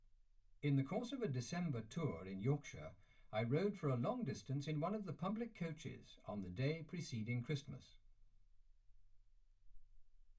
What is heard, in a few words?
male speech english